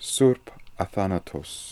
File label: "Surp Athanatos", pronounciations; Fourth Way; Beelzebub